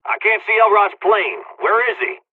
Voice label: dlg, hungarian; War Thunder